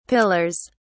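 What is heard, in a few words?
"pillars", British English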